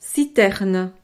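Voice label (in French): "citerne", French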